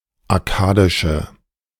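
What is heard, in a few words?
"akkadische", German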